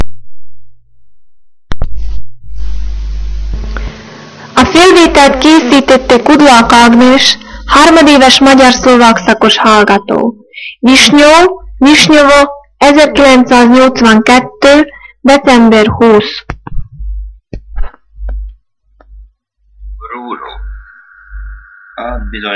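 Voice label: dialect collection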